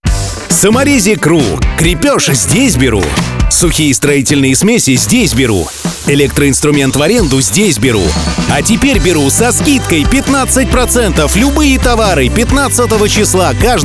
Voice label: audiorolik; профессиональных дикторов для вашего аудиоролика; Samorezik Ru

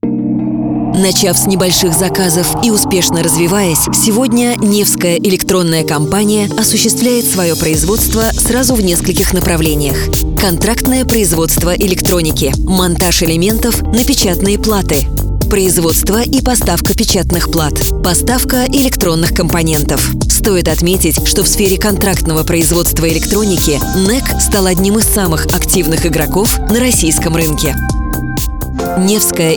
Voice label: informacionno; профессиональных дикторов для озвучивания видео

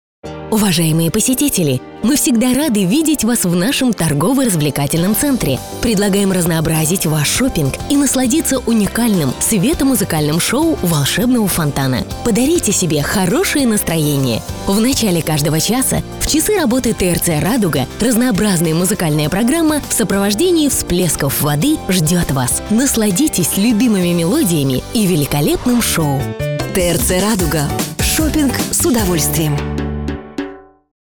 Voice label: golosovoe obyavlenie, голосовое объявление; профессиональных дикторов для записи голосовых объявлений; Ulybka Radugi; Улыбка Радуги

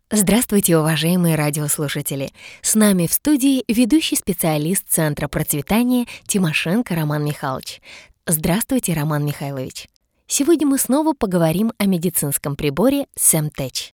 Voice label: veduschaya; Актриса дубляжа; голос многих популярных артистов и мультипликационных героинь

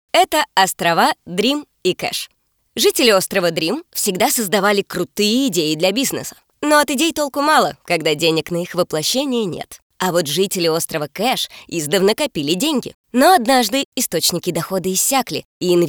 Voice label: igrivo; актерских голосов для озвучки видео

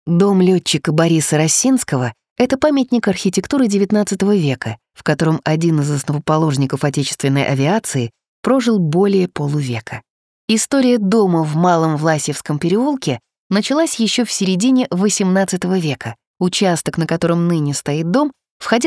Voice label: audiogid; профессиональных дикторов для аудиогида; Dom letchika

